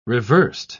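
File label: "reversed", British English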